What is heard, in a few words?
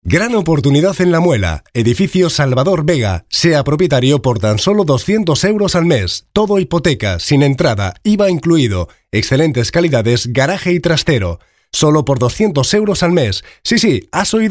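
male Castilian Spanish voice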